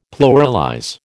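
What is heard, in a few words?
"pluralize", American English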